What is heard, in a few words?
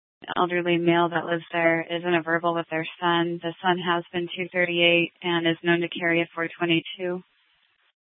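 police dispatch radio, scanner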